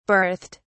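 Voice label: "berthed", British English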